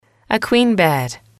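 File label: "queen bed", English, female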